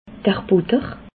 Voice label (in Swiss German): "butter", alsace